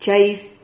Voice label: "chais", Silabas

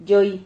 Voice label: "lloi", Silabas

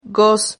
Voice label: "goz", Silabas

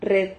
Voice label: "rred", silabas

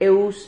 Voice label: "eus", Silabas

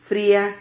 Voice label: "fria", Silabas

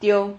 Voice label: "tiho", Silabas

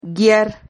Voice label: "guiar", Silabas

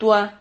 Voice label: "tua", Silabas

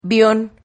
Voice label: "vion", Silabas

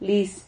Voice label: "liz", Silabas

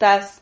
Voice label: "tax", Silabas

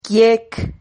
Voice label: "quiec", Silabas

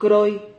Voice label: "croy", Silabas

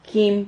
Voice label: "jim", Silabas

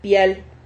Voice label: "pial", Silabas

